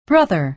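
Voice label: "brother", American English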